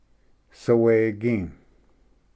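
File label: "sawegi", Cherokee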